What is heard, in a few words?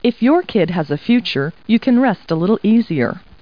people, kid